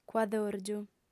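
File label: "cuadòrgiu", female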